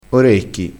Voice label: "orecchi", crespinese; vocabolario dialettale

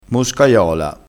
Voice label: "moscaiola", crespinese

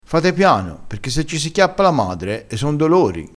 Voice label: "se ci si chiappa la madre", dialettale

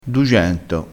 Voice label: "dugento", crespinese

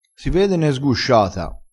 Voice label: "sguscia", vocabolario dialettale crespinese

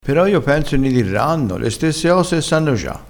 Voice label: "dirranno", crespinese

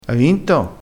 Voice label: "fa cappotto", vocabolario dialettale

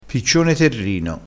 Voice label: "piccione terrino", crespinese

